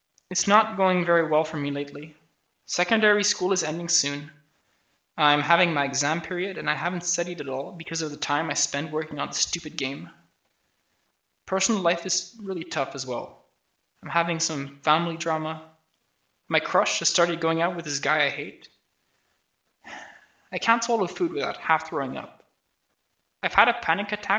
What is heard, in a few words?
voice, narration